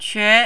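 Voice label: "que", Mandarin, second tone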